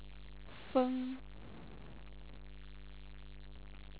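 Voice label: "fen", Chinese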